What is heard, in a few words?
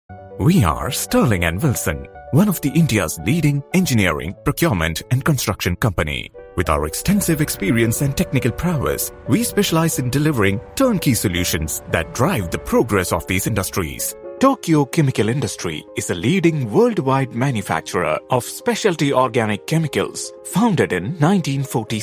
corporate voices